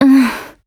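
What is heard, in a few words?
LOW MOOD, Japanese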